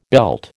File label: "belt", American